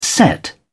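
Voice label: "set out", British English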